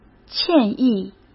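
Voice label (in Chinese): "歉意", Mandarin Chinese; chinese flashcards; hsk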